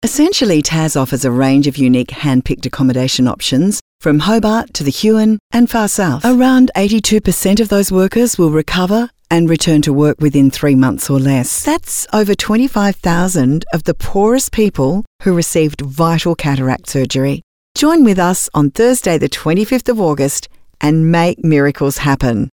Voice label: Natural